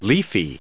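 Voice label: "leafy", US English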